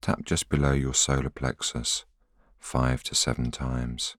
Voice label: voiceover